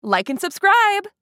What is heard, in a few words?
Like and subscribe social media female; shorts sound effects